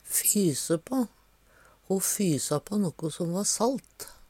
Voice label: fyse pa, Numedalsmål

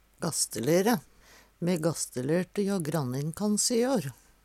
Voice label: "gastelere", Numedalsmål; Me gastelerte jå grannin kans i jår.; Hushald, mat, drikke

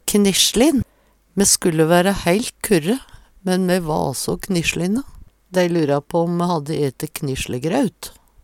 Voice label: knissjlin, Numedalsmål; Adjektiv; Veggli

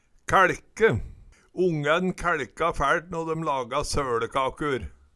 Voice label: kæLke, Numedalsmål

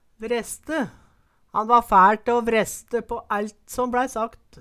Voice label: "vreste", Numedalsmål; Eksempel på bruk Han va fæL te å vreste på ællt som bLæi sakt

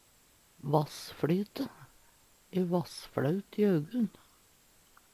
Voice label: vassflyte, Numedalsmål; E vassflaut i augun.

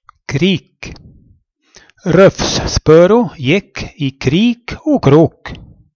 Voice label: krik, Numedalsmål; Substantiv hankjønn

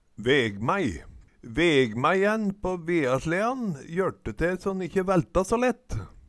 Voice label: vegmæi, Numedalsmål

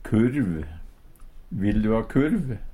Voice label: "kurv", Numedalsmål; Hushald, mat, drikke

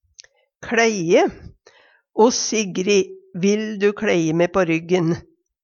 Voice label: kLeie, Numedalsmål; o Sigrid, vil du kLeie me på ryggen?

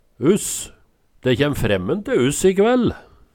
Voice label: uss, Numedalsmål

